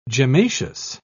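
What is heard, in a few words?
"gemmaceous", British English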